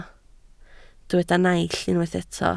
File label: "naill", Welsh